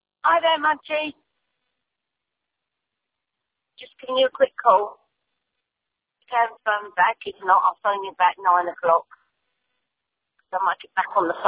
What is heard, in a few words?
voicemail